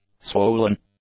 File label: "swollen", English; adjectives